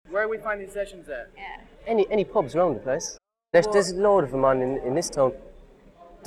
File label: Ireland Cork Male Musician 30s